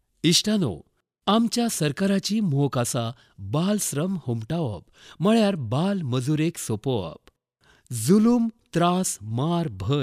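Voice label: Konkani male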